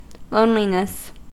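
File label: "loneliness", American English; pronunciations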